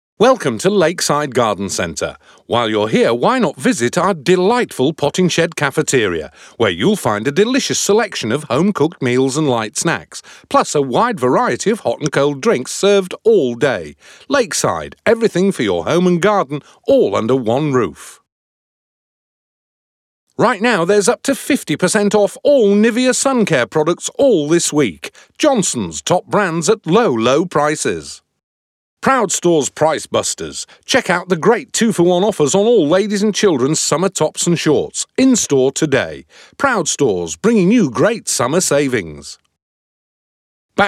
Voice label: Instore Voice Over Mock Ads; Radio Station Idents